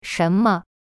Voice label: "什么" shen me, chinese; cyberchinese